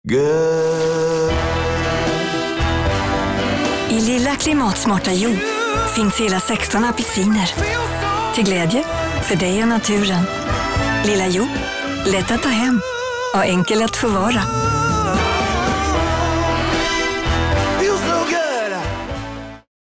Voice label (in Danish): svensk; Rynkeby; Lilla Jo